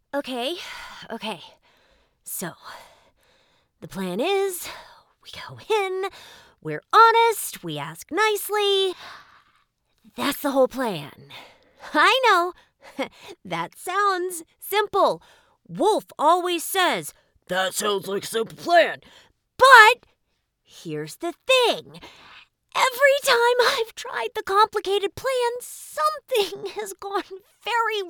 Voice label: young teen, optimistic, reflective, encouraging